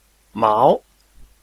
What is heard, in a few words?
"mao2", Chinese